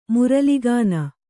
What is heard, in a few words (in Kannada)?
"ಮುರಲಿಗಾನ", Kannada